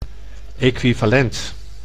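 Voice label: "equivalent", Dutch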